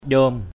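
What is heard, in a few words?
"njom", Cham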